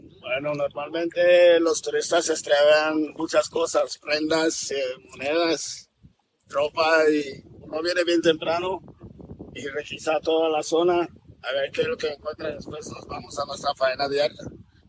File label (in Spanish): acento isleno san andres